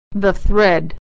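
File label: "thread", American English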